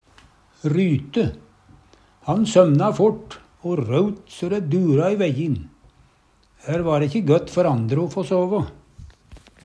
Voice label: "ryte", Numedalsmål

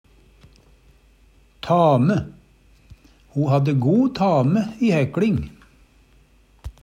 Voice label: tame, Numedalsmål; Substantiv hankjønn; Tekstilhandverk; Ho hadde go tame i hekLing. ..

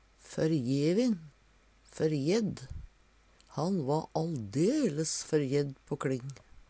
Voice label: forjevin, Numedalsmål

